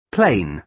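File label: "plane", British English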